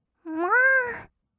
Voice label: "もー", kawaii voice; 1200 kawaii voice!!; 色々なワンショット

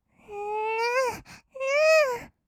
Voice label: kawaii voice; ASMR; 色々なワンショット

